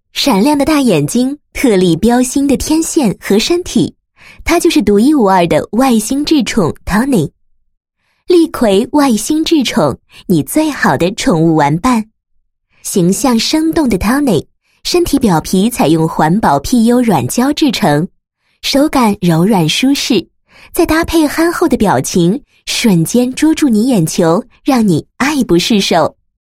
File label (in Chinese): 女 产品介绍 外星智宠 宣传介绍; 外星智宠TONY宣传介绍